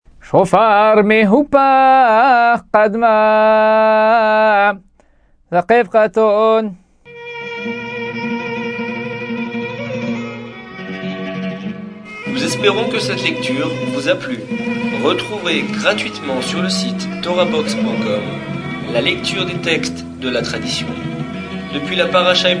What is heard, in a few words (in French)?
apprentissage taamim selon le rite constantinois